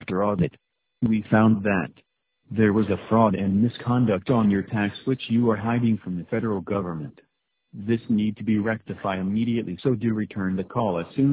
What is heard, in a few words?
Tax Scam Voicemail